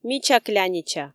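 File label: "мича клянича", Komi, female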